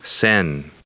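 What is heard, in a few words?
"amartya", English, us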